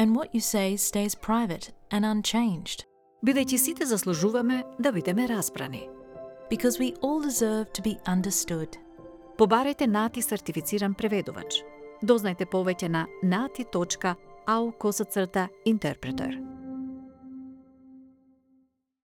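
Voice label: Macedonian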